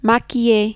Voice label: "maquiller", French